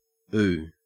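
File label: "ooh", Australian English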